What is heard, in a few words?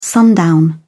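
"sundown", English, uk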